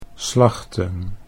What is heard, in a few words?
"slachten", Dutch